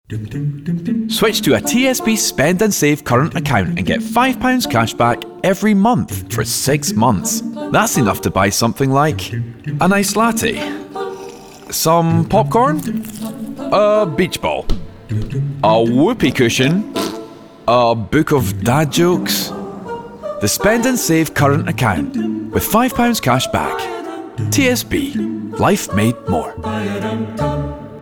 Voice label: Comedic, Conversational, Friendly; VO Showreel; TSB